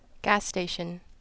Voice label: Plantronics Headset Quiet Gas station